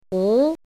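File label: "hú", Mandarin Chinese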